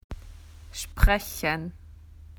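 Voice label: "to speak", Australian English; PictureDictionary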